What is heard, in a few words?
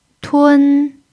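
"tun1", Mandarin pinyin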